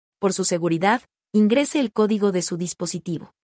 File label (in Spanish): ADD CODIGO, es woman